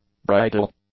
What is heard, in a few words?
"bridal", English; adjectives